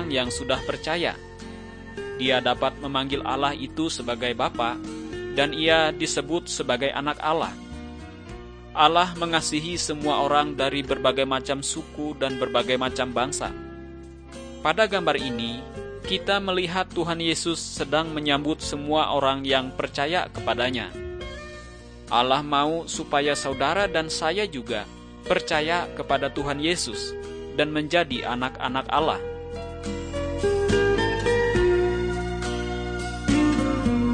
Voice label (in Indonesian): kabar baik good news bahasa indonesia